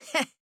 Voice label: Emote Positive